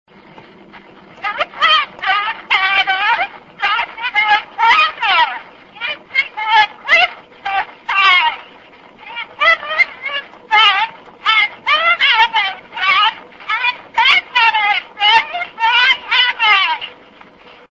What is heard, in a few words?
Talking Doll, Little Jack Horner; Edison; 1890